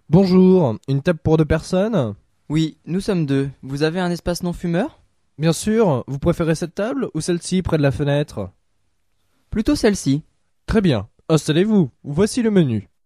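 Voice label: Conversation Restaurant French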